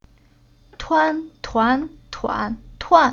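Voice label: "Tuan", chinese syllable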